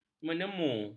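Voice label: "menemu", Malay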